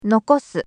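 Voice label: "nokosu", Japanese; nakama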